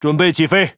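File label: Speech Pilots Torpedo Ready, Chinese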